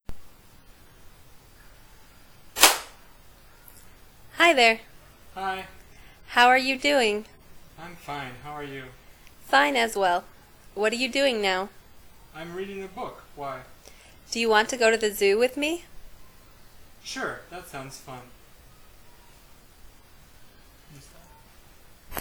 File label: Female Part, mono